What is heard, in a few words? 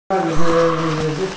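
"dance", Mandarin Chinese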